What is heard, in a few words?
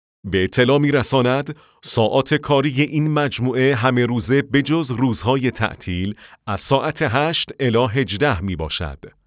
men